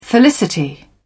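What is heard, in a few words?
"felicity", British English, dictionary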